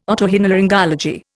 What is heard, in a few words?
"otorhinolaryngology", Indian